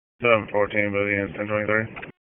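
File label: Dispatch, p25; WSO DISP 1; wake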